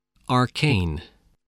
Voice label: "arcane", spelling

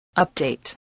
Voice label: "update", English

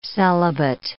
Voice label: "celibate", English

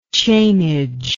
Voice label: "chainage", English

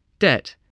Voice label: "debt", English

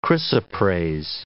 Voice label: "chrysoprase", English

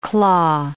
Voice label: "claw", English

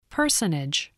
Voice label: "personage", voice, English

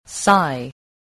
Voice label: "sigh", voice spelling

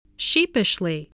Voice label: "sheepishly", spelling

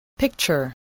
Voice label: "picture", voice, English

